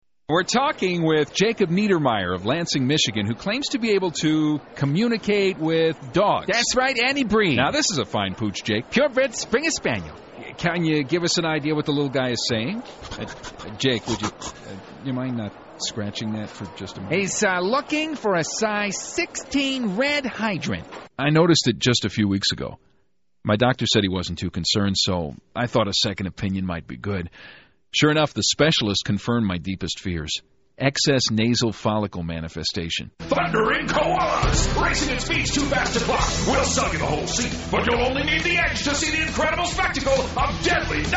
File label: voiceover male